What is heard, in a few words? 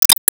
Emo Yes